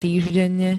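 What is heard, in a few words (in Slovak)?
"týždenne", Slovak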